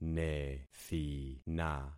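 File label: "nethina", concatenated syllables; Affixing experiment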